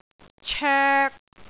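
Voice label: "coek3", Cantonese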